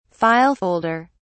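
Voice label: "file folder", English, British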